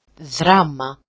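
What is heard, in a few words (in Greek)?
"drama", Greek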